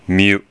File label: "myu", hiragana